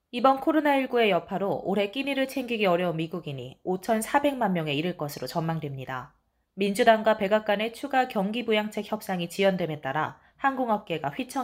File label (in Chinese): 韩语女 产品介绍